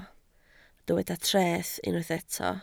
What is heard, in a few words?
"treth", Welsh